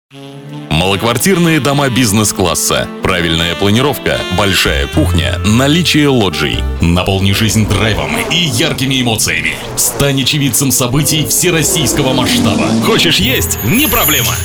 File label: Reklama